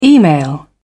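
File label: "email", British English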